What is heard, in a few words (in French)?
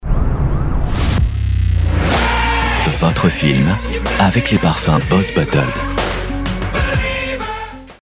elegant, comédien voix off parrainage; BOSS BOTTLED élégant